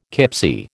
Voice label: "kipsie", American